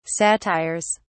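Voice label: "satires", British English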